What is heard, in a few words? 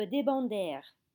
"se débandèrent", French